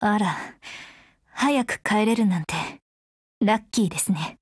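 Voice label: Japanese, Voice; BREAK; GF Alarm; RPK16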